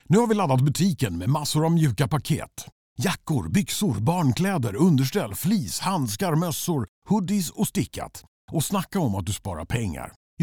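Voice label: Swedish Male Hard Sell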